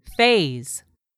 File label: "phase", English; 3000 words